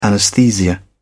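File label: "anesthesia", British English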